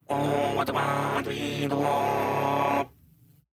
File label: Robot Gibberish Voice